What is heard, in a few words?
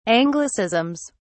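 "anglicisms", British English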